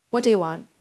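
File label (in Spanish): Voice Acting es F, Spanish, female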